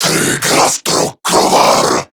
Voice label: Attack, Male; Warframe; Corrupted Grineer Male; Dialog Barks Battle